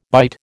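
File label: "byte", American English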